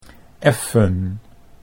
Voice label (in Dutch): "effen", Dutch